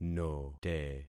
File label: "note", concat syllables; Affixing experiment